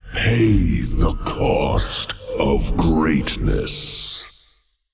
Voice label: Male Old God Bark